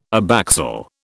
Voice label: "abaxial", British

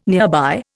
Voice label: "nearby", Indian

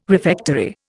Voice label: "refectory", Indian

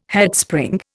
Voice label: "Headspring", Indian

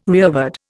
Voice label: "rearward", Indian

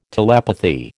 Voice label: "telepathy", American

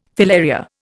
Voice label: "filaria", Indian

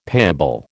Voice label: "payable", American

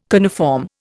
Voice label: "coniform", Indian

